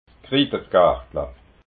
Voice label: "krittergartla", alsace

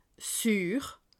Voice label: "sur", alsace

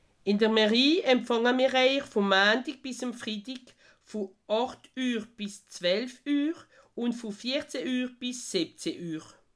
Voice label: "empfanga", alsace

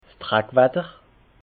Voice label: "drack watter", alsace

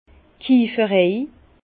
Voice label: "kieferei", Bas Rhin; vin; la tonnellerie

